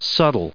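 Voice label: "subtle", US English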